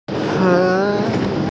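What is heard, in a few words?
"heng2", Mandarin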